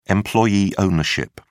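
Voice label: "employee ownership", British English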